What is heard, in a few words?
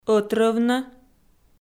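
"ӧтрӧвнӧ", Komi, female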